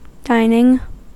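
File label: "dining", US English; pronunciations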